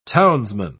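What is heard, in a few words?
"townsman", British English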